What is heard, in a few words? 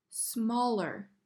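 "Smaller", English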